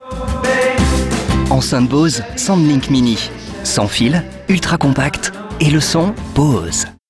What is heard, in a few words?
TV AD, Bose SoundLink Mini